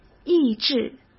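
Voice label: "意志", Mandarin Chinese; chinese-flashcards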